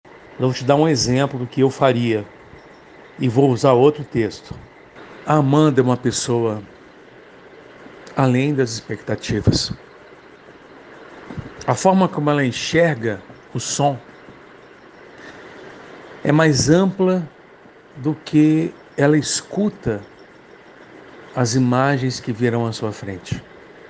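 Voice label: corporate commercial middle age poetic genuine emotional female brazilian portuguese voiceover; vikings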